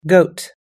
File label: "goat", us english